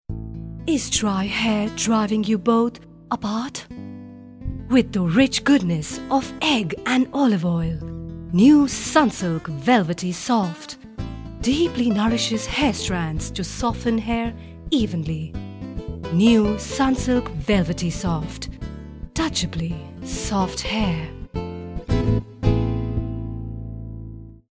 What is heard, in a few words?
eng promo; female voice